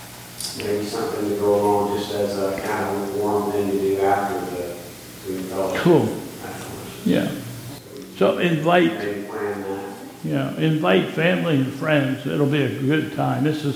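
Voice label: Announcement of Carol Sing next Sunday, church service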